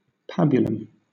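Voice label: "pabulum", British English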